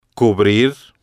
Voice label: "cubrir", Spanish

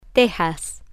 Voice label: "texas", Spanish

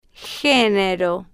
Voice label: "ge-ne-ro", Spanish

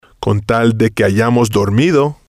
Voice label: "con Tal De Que Hayamos Dormido", spanish

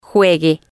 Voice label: "juegue", spanish

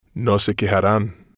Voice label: "no Se Quejaran", spanish

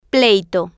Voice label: "pleito", Spanish

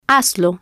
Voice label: "hazlo", spanish

